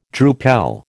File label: "drupel", American